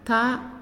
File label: "Kill", Naasioi